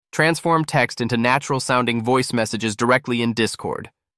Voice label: male voice